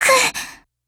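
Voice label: LOW MOOD, Japanese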